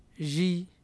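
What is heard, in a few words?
"zhi", syllable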